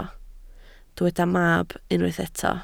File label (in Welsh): "mab", Welsh